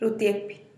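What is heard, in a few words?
"rutyepih", Teotitlán del Valle Zapotec; whistle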